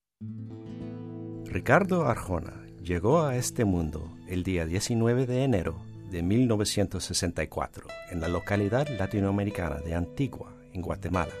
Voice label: spanish